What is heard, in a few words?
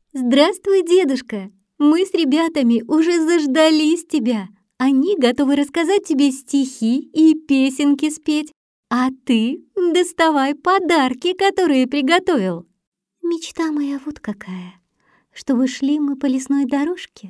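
Snow Maiden fairy tale heroine voice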